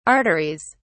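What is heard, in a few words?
"arteries", English, British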